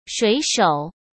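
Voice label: "水手", Mandarin Chinese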